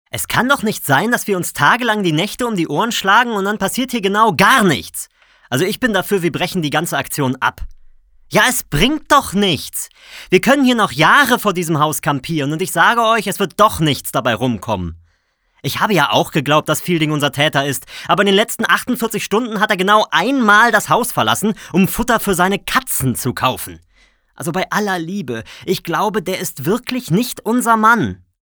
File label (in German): hoerspiel